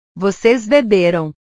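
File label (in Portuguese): "vocês beberam", Portuguese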